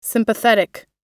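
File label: "sympathetic", US English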